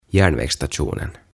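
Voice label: "Järnvägststationen", "Rautatieasema", Swedish, Finnish; OTAVA KONSERNI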